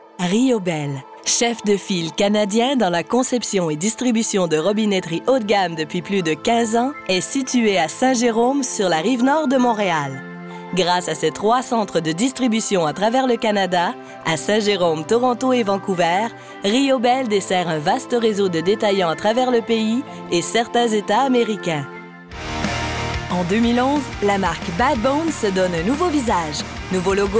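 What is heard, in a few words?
French Canadian, narration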